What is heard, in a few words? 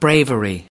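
"bravery", US English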